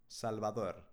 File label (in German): "salvador", spanische jungennamen